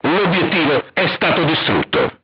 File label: target destroyed, crew tanks italian; War Thunder